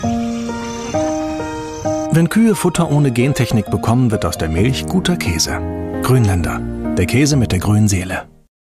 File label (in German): Fremdtake Sanft